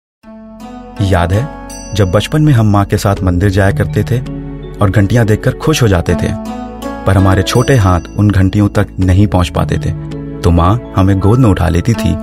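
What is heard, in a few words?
voice over Hindi, male voice; kumbh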